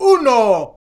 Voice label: Uno!, Vocals; Roland BK-5

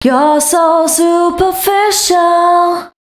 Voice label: female d130, Dance Ultra Voice hooklines; Mutekki Media; Dance Ultra Voice VOL-1

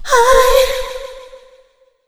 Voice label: HI VOX, ADLIB WOM, Funky Vocals; USB Soundscan vol.18; AKAI

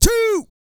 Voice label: COUNT, Vocal Planet, R&B & Dance, COUNTING; ILIO

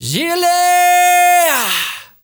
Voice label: ZULU MALE

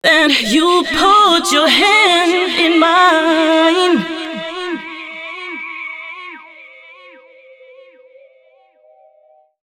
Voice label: female d 120bpm, short hooks, Dance Voice; Mutekki Media; Dance Ultra Voice VOL-1

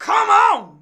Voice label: "come on", shouts; Best Service; Hyper Dance

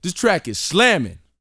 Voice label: BOAST MF; Vocal Planet; R&B Dance

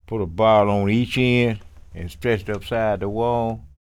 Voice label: STORY, SPOKEN; Spectrasonics; Vocal Planet; Blues Soul

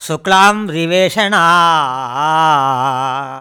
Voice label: MAN VX, Indian Traditions

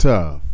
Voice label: TOUGH, Male Vox; Hip Hop Nation

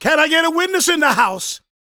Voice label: PREACHIN, Gospel, PREACHER; Vocal Planet Vol1 Gospel

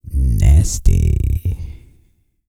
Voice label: E SPEECH, Vocal Planet R&B Dance

